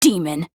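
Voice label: "demon", Japanese; nagisa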